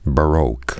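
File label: "baroque", spoken word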